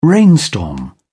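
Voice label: "rainstorm", British English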